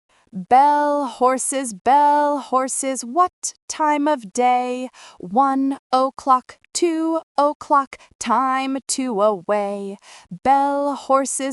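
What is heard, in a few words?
female US; Bell Horses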